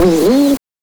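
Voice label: "Need", voice; NES DPCM; MIDINES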